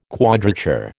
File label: "quadrature", American